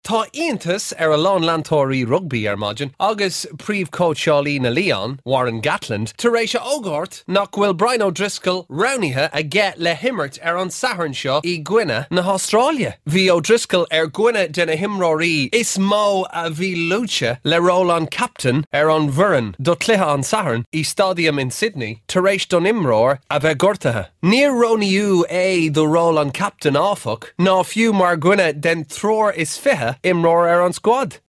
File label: Gaelic